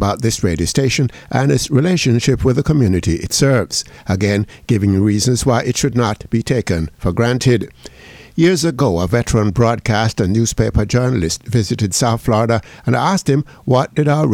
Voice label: COMMENTARY